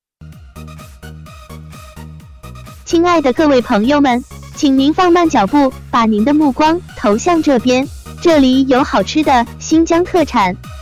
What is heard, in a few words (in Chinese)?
女 促销, 新疆特产; 新疆特产促销